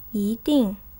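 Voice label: "yi ding", Chinese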